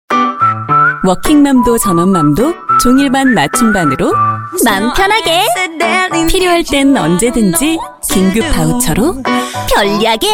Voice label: Korean